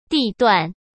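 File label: "地段", Mandarin Chinese; chinese-flashcards; hsk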